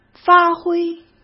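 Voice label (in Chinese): "发挥", Mandarin Chinese; chinese flashcards; hsk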